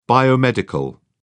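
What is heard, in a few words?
"biomedical", British English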